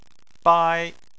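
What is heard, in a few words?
"bai" Chinese, first tone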